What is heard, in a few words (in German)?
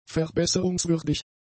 "verbesserungswürdig", German; adjectives